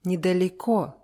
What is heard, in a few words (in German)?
"nedaleko", russisch; НЕ-Wörter mit Synonymen